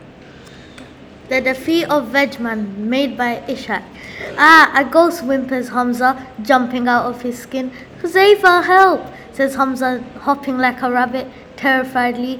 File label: Audiobook